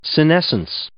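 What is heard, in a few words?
"senescence", English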